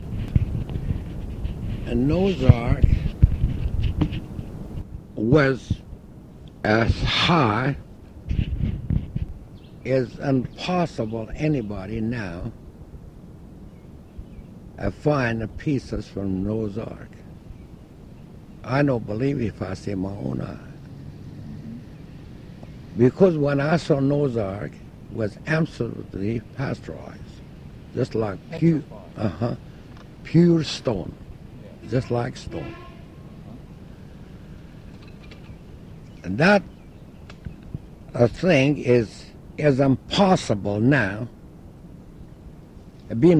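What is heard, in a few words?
interview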